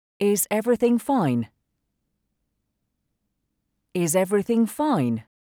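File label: Is everything fine, English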